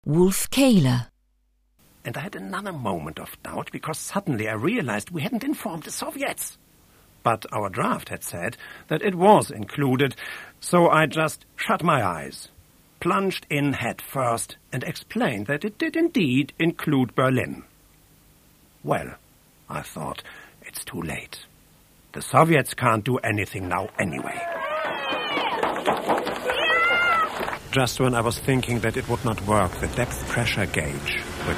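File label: English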